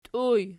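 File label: "tiuj" nominative, Archi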